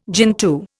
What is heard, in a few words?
"gentoo", Indian